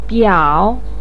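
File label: "biao3", Mandarin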